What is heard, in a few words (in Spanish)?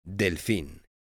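"delfin", Spanish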